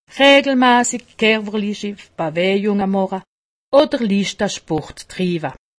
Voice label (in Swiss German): "sport", alsace; medecine